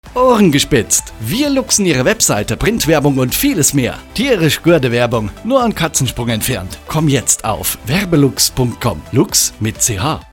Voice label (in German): Funkspot; Werbeluchs